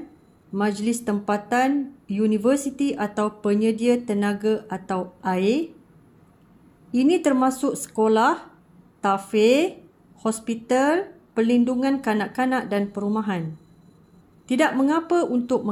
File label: Audio Malay